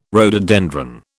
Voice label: "rhododendron", British

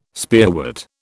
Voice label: "spearwort", British

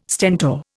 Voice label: "Stentor", Indian

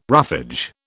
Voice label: "roughage", British

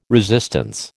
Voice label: "resistance", American

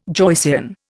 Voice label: "Joycean", Indian

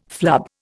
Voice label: "flub", Indian

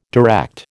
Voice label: "direct", American

